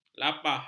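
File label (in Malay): "lapah", Malay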